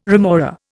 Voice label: "remora", Indian